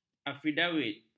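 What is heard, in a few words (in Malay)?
"afidavit", Malay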